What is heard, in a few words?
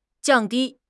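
"jiang4 di1", Mandarin Chinese; HSK4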